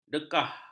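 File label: "dekah", Malay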